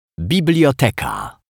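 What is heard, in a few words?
"biblioteka", Polish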